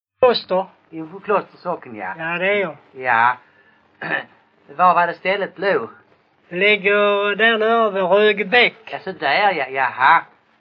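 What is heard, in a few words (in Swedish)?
Bosjökloster Skåne, dialekter; Folkminnen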